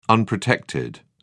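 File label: "unprotected", British English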